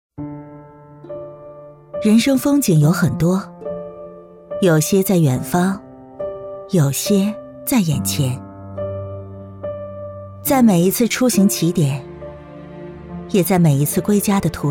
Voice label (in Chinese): 走心 素人 沉稳 深邃